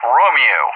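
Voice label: "Romeo", English; JTAC; Alphabet; DCS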